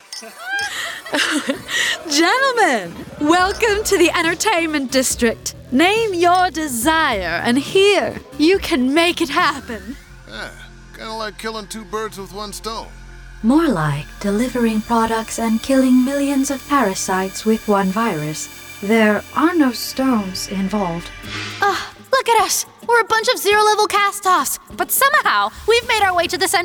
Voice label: English American video games

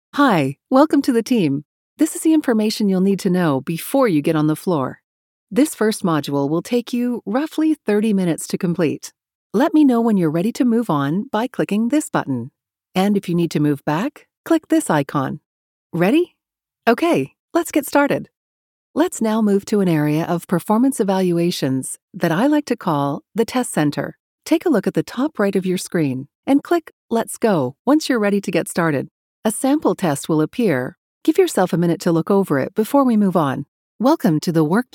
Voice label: english canadian e-learning

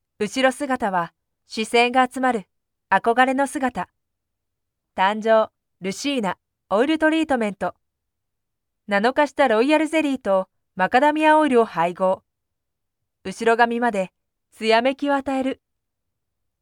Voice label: japanese commercial

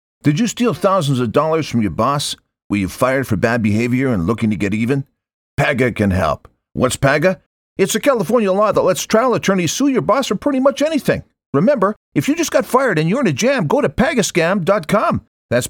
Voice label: english american impersonations; Profundo e autoritário com clareza elegante e calor

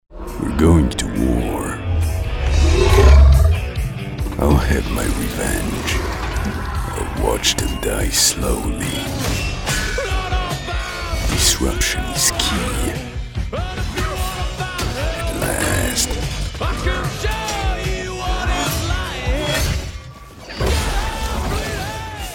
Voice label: english animation

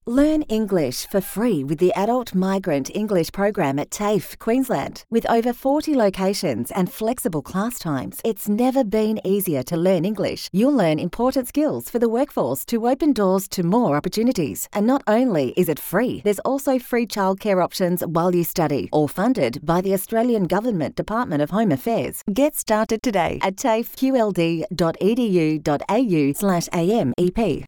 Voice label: english australian political ads

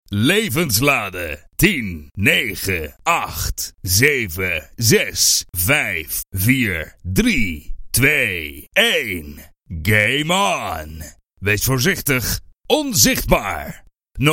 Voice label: dutch movie trailers

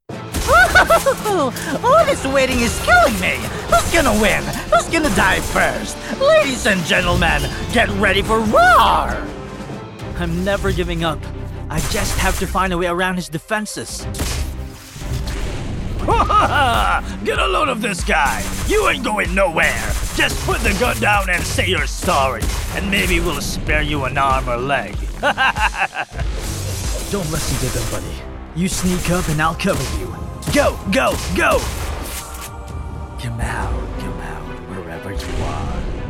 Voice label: English animation

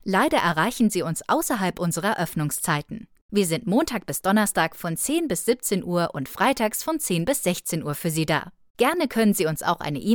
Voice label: german ivr

